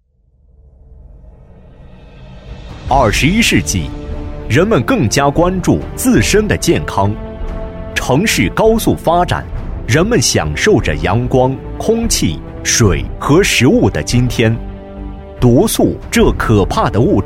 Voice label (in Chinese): 男 产品介绍 芦荟产品宣传